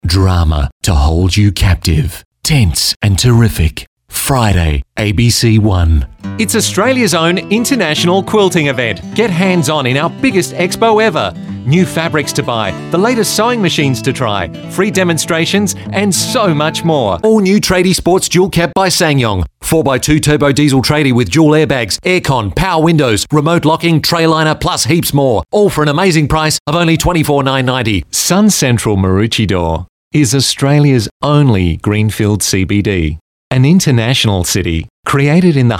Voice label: male talent retail